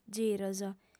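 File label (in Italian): "giros", lingua sarda, female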